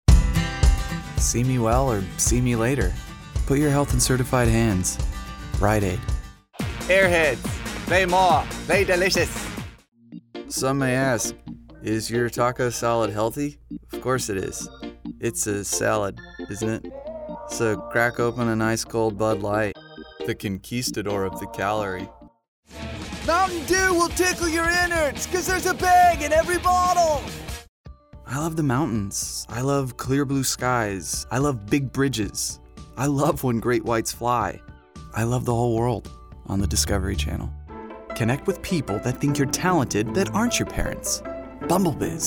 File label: Commercial Reel